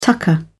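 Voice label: "tucker", British English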